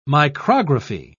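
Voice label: "micrography", British English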